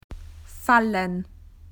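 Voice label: "to fall", Australian English; PictureDictionary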